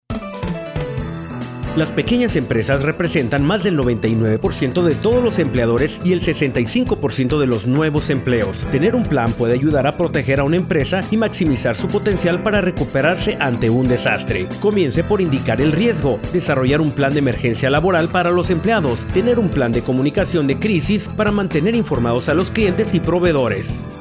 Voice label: Small Business Spanish